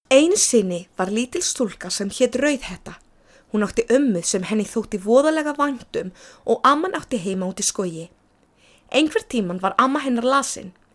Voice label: Icelandic